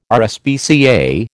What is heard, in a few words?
"RSPCA", American